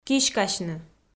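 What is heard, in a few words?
"киськасьны", Komi, female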